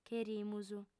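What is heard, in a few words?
"cherimus", female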